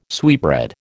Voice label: "sweetbread", American